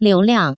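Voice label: "liuliang", Taiwan Mandarin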